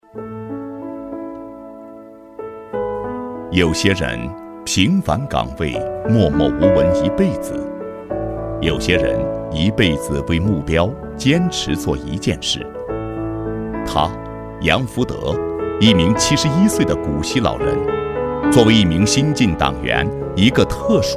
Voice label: 讲述; 人物; 信仰的力量

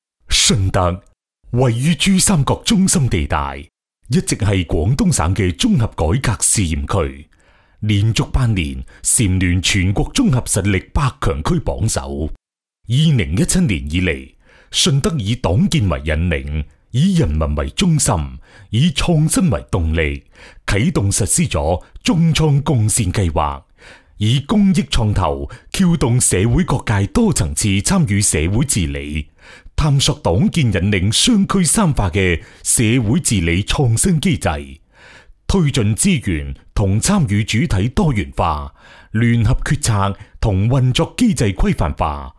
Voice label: 男粤 专题 政府 沉稳, 粤语; 顺德

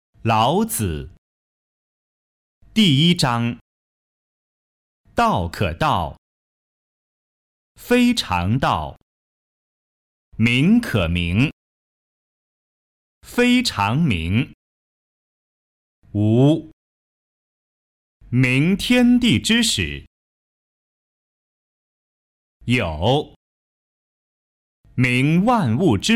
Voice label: 多媒体 培训课件 国学 幼儿教学跟读, 男; 老子

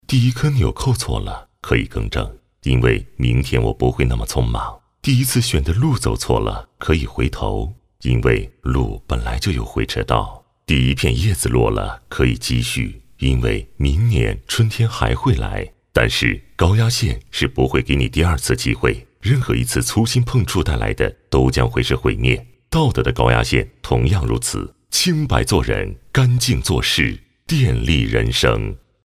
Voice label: 广告 公益 磁性, 男国; 电力人生

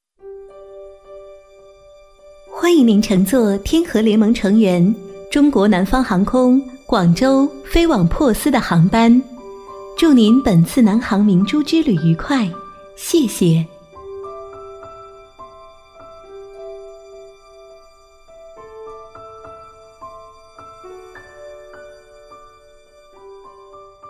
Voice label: 多媒体 广播提示 甜美; 南方航空

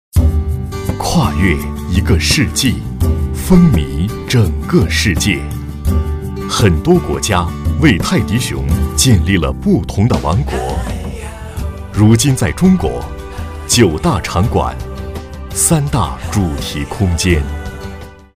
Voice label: 广告, 品牌形象, 浑厚; 太极熊

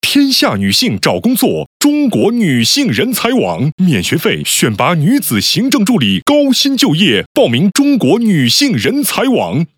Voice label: 广告 招聘 激情, 男国; 中国女性人才网

